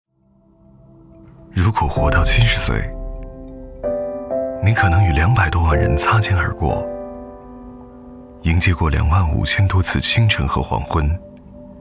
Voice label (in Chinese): 男 独白偏素; 素人风格男声; 如果活到70岁